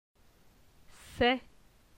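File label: "C'est", French; phonetique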